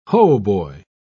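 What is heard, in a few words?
"hautboy", English, uk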